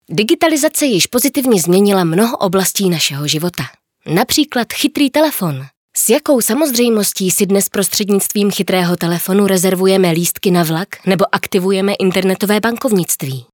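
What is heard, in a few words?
Czech, female, narration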